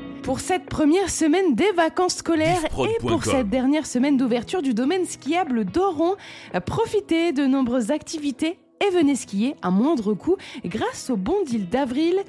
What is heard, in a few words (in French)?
Podcast Demo Sans et Avec animation; Radio Cimes dAzur; Ils font lactu